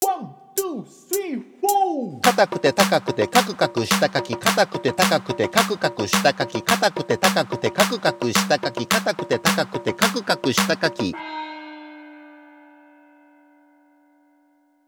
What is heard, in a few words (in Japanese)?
早口言葉リズム（中級編）